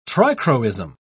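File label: "trichroism", British English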